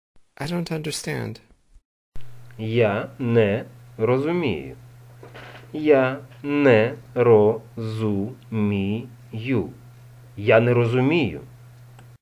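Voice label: Ukrainian, I dont understand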